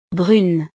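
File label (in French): "brune", French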